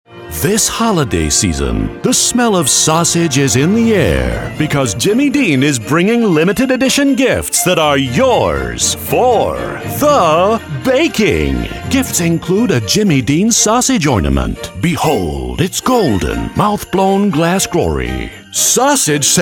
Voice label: TRAILER QUIRKY CHRISTMAS; JIMMY DEAN